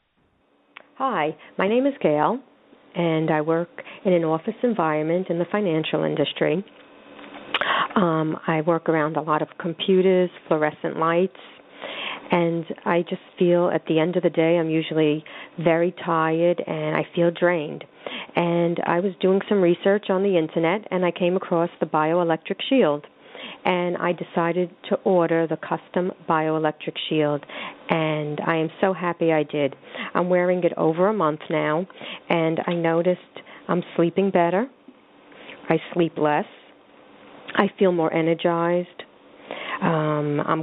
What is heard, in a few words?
Phone call